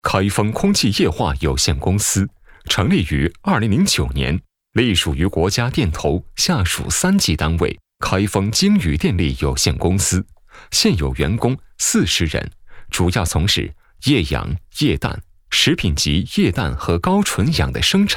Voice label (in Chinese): 男, 公司宣传 配音; 空气液化有限公司宣传简介